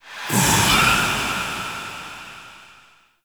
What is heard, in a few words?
Whisper, OULL; Kuva Lich; Requiem Mod